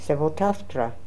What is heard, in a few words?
"Sevotaftra", pronounciations; Fourth Way; Beelzebub